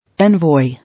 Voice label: "Envoy", English